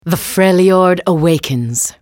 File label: attack, en US; Anivia